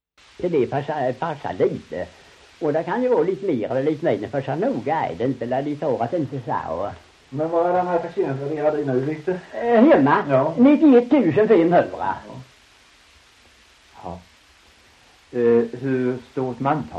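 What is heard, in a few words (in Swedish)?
Ramdala Blekinge, dialekter svenska sagor; Folkminnen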